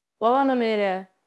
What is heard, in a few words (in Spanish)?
Voice Acting es F0